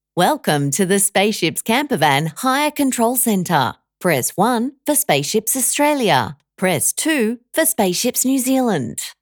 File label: Phone Welcome Greeting; Spaceships Australia